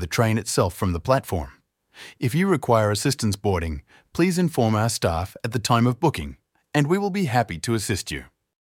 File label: Station map audio description